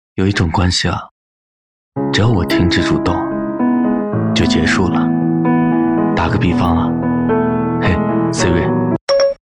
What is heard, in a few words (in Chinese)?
抖音情感短语